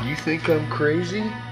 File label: "you think i'm crazy", male; questions